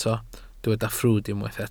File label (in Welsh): "ffrwd" Welsh